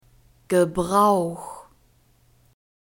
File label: "gebrauch", German; neuter